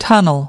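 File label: "tunnel", English word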